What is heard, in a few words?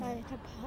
woman in cafe